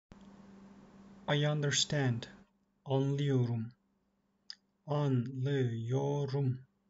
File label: i understand, Turkish; the talking turkish phrasebook